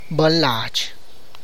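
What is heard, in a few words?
person from the same town, Tlacochahuaya Zapotec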